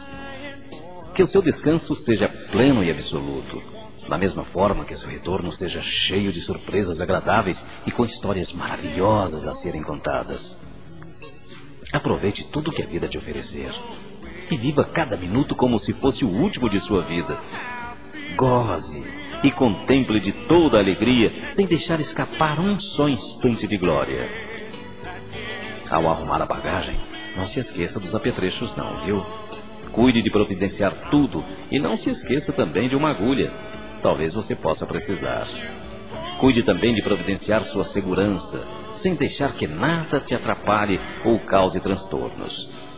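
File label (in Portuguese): BOA VIAGEM, masculino; Telemensagens; Telemensagens 2017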